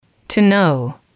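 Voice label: "to know", English, female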